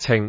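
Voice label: "cing6", Cantonese; microsoft Wan Lung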